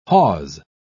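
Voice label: "hawse", British English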